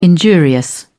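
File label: "injurious", British English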